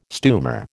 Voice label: "stumer", American